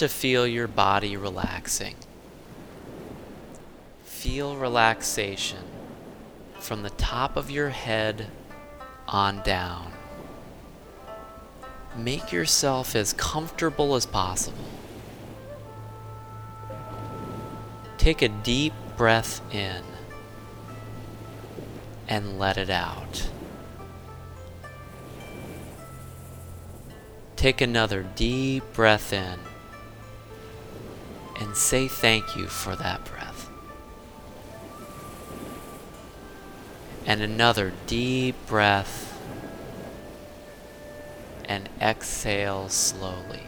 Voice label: coaching meditation